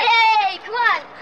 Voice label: "hey come on" kid, voice; ooh ahh